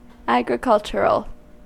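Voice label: "agricultural", American English; pronunciations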